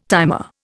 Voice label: "timer", Indian